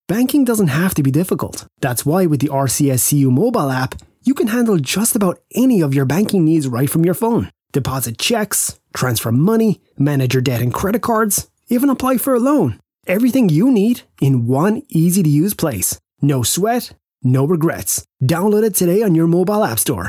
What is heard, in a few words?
Sincere